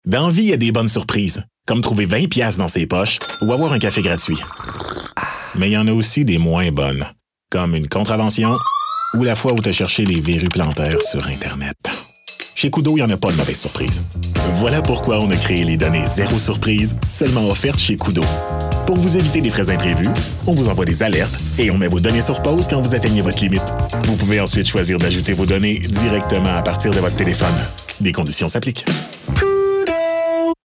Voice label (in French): pub, voix hommes; koodo